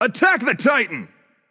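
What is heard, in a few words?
vox attack male; smite; loki; voicepack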